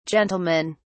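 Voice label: "gentlemen", British English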